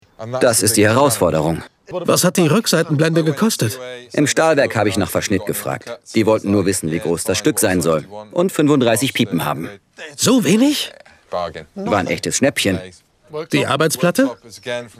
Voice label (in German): Neutral